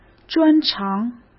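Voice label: "专长", Mandarin Chinese; hsk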